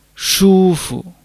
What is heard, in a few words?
"shu1 fu", Chinese